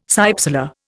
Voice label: "cypsela", Indian